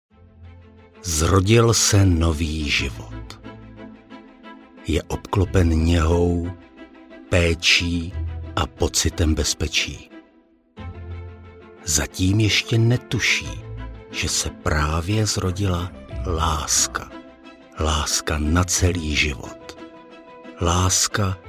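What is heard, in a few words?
Czech, Commercial; GoLocalise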